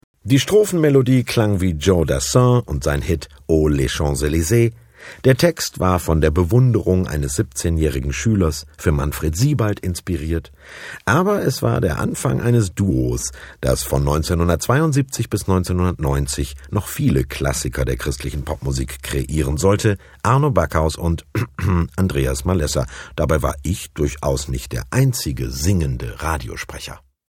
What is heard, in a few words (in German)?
moderation